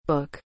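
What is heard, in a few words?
"book", English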